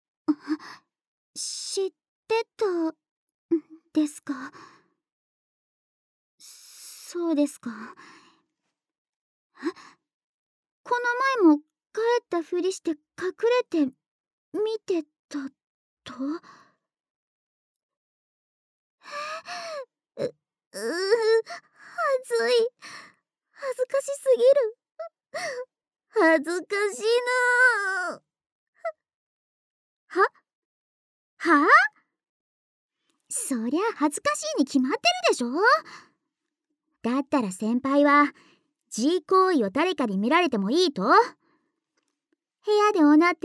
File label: Whisper, ASMR; LOVEWhisperこうはい